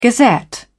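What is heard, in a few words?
"gazette", British English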